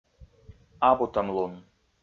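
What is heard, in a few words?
"абутӧмлун", Komi, male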